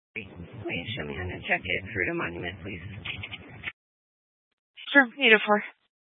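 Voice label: Sheriff Dispatch, scanner audio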